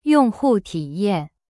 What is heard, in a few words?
"用户体验", Chinese; yong hu ti yan